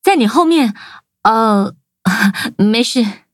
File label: battle zh-hant